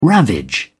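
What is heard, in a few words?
"ravage", British English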